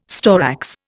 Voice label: "storax", Indian

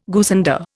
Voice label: "goosander", Indian

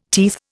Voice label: "teeth", Indian

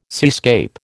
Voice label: "seascape", American

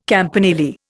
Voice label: "campanile", Indian

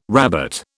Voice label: "rabbet", British

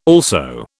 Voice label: "also", British

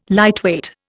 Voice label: "lightweight", Indian

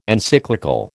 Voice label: "Encyclical", American

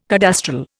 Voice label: "cadastral", Indian

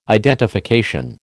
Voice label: "identification", American

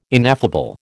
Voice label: "ineffable", American

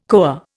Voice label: "koa", Indian

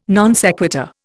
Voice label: "non sequitur", Indian

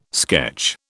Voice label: "sketch", British

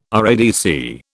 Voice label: "RADC", British

